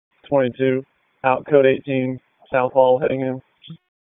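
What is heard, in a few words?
Raleigh Police Southeast District Dispatch, p25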